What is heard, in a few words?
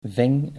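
"ving", Dutch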